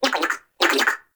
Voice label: Baby Vocal Spoken; Nokko; Warframe; Nokko Colony; Find Nokkos